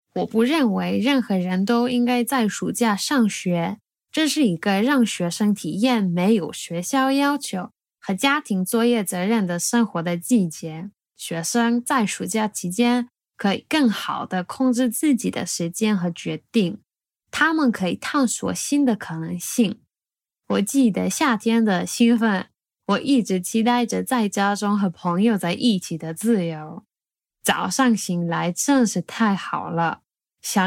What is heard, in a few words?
Mandarin response